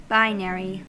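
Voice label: "binary", American English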